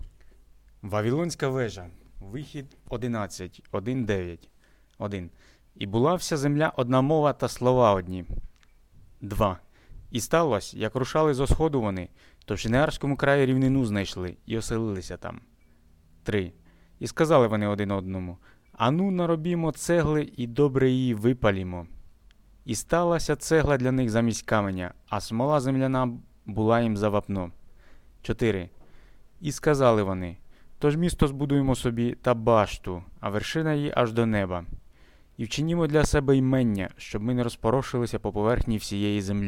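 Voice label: Ukrainian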